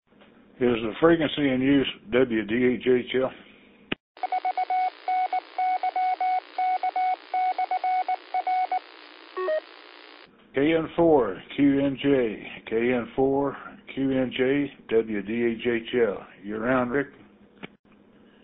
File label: qso rec simplex logic